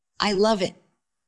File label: Dubbing cloned es F2